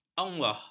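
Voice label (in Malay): "allah", Malay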